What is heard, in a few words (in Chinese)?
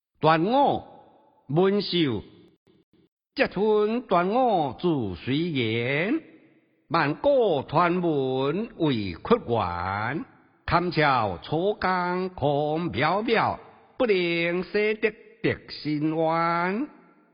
端午 朗讀